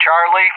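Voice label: "Charlie", English; JTAC; Alphabet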